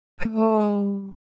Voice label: Ewe, ideophones

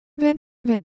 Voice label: Korean Sound, ideophones

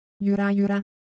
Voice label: Japanese, ideophones; Motion

